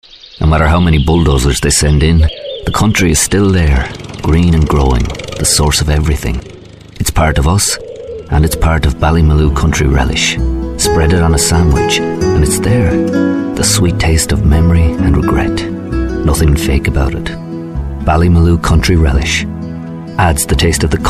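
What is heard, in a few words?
english irish male narration; voice demos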